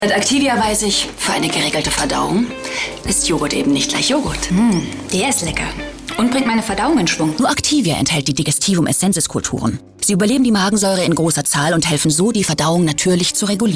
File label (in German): TV Werbung; Activia Freundschaftsbecher